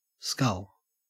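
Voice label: "scull" English, Australian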